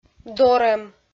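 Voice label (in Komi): "дорӧм", Komi, female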